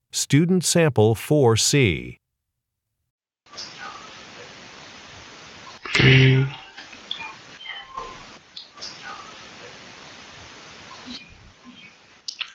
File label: chinese conversation